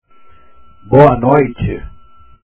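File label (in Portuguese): "boa noite", Portuguese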